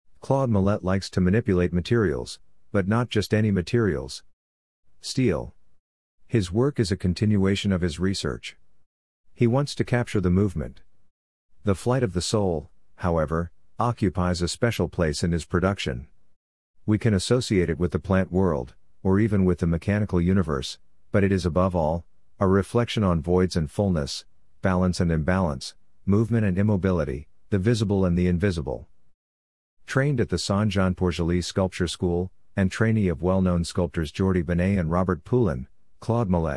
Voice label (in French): anglais